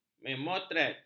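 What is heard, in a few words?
"memotret", Malay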